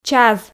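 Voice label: "чаз", Komi, female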